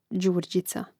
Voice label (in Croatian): "đurdica", Hrvatski; mreznik